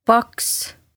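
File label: "Paks", Estonian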